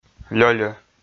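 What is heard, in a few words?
"лёльӧ", Komi, male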